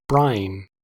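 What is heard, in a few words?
"brine", US English; pronunciations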